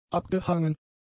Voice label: "abgehangen", German; adjectives